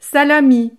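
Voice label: "salami", French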